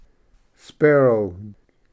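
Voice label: "sparrow", English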